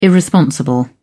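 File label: "irresponsible", British English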